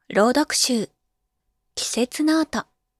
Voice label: タイトルコール, 朗読; BGMなし

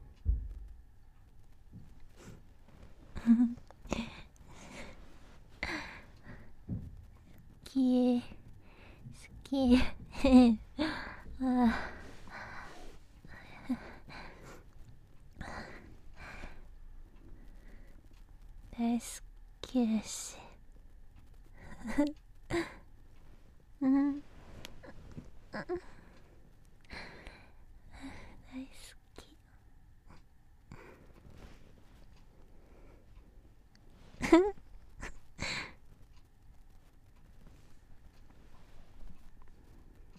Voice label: 酔っ払いしゅきしゅきボイス

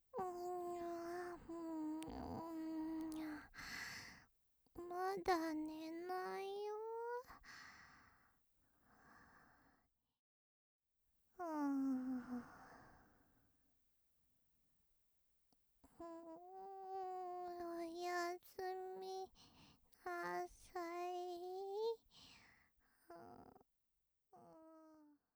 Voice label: ロリ おやすみなさい

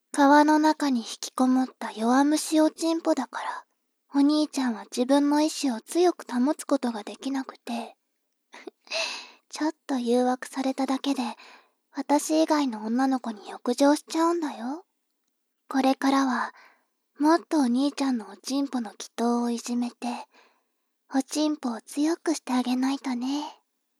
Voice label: 妹 台詞

